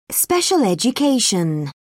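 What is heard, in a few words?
"special education", British English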